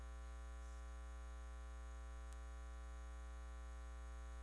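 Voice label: "lute", spoken word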